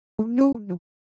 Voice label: Japanese Color Visual, Ideo Phones

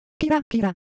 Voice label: Japanese Color Visual, Ideo Phones

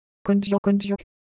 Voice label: Korean, ideophones; Texture

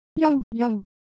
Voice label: Japanese Shape, Ideo Phones

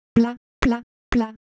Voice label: Ewe, ideophones; Motion

